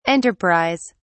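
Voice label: "enterprise", British English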